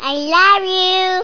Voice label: I Love You Message Ringtone